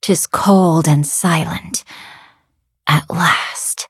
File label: victory, en; cookie run